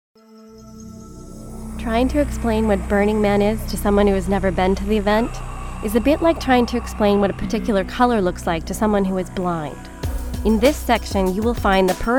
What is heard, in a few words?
narration